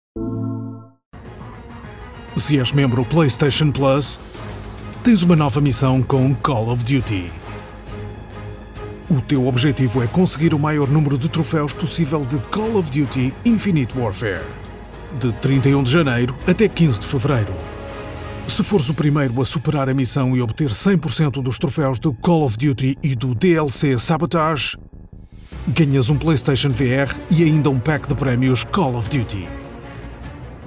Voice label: Commercial PT; PlayStation 4